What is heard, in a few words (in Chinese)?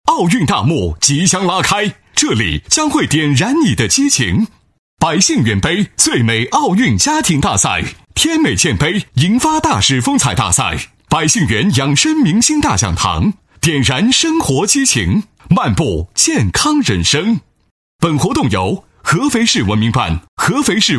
男声 磁性 广告; 百姓缘奥运